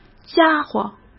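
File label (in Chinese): "家伙", Mandarin Chinese; hsk; chinese-flashcards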